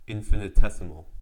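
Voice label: "infinitesimal", US English; pronunciations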